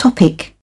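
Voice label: "topic", British English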